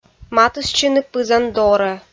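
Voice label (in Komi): "матыстчыны пызан дорӧ", Komi-Zyrian, female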